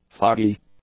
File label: "foggy", english; adjectives